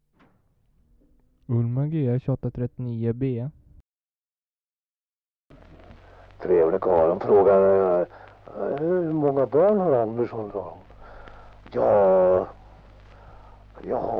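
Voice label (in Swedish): Valbo Gästrikland, dialekter; Folkminnen; Svenska sagor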